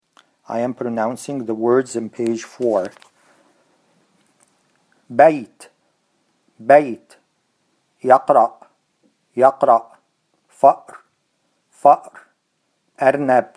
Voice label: Pronounce, Arabic